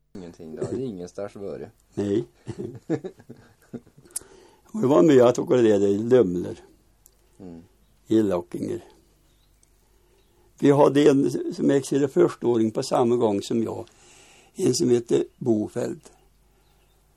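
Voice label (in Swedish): Brunskog Värmland, dialekter; Svenska sagor; Folkminnen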